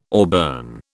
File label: "auburn", British